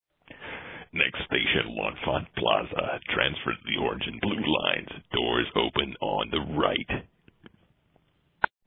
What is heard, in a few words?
metro announcement what a deep voice you have